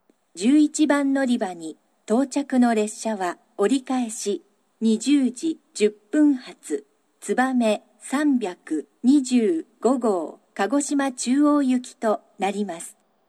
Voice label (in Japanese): 新水俣, 11番のりば接近放送; 折り返し・つばめ325号 鹿児島中央行き; 列車がホームに差し掛かったら放送が流れます。; shin minamata